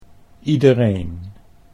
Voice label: "iedereen", Dutch